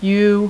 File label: "u" female, voice alphabet; Web Clip Empire 150,000